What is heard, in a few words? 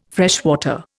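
"freshwater", Indian English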